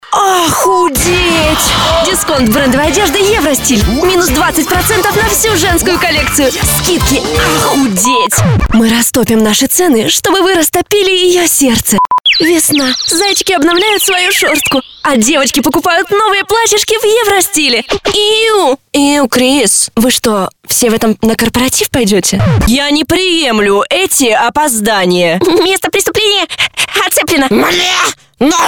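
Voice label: Russian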